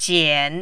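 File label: "jian", third tone, Mandarin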